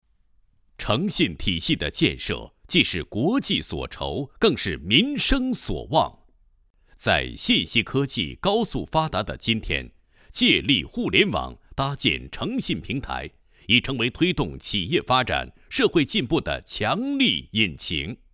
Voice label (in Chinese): 男, 政府宣传 税银互动服务平台宣传片配音; 衡水市税银互动服务平台